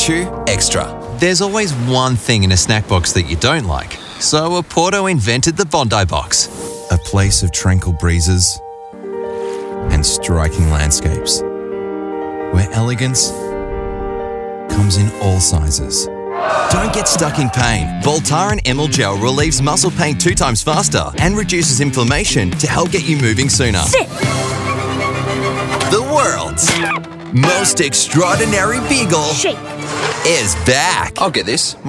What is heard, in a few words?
Voice Over Actor, compilation; Demo